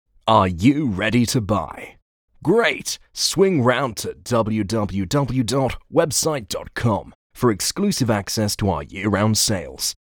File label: Ad no music